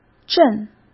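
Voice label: "震", Mandarin Chinese; chinese flashcards; hsk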